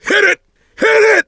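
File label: battlecry tank, male; survivor; coach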